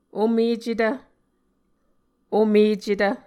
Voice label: "omecetv", Muscogee